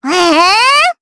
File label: Vox Deny, jp; Miruru; kings raid